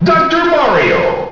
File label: announcer; dr mario; ssbm